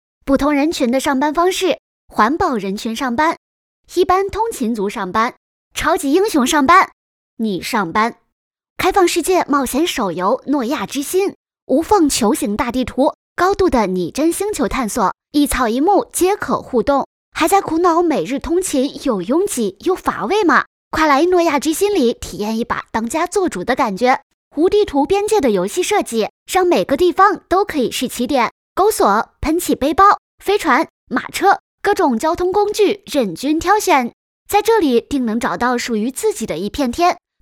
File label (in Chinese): 信息流游戏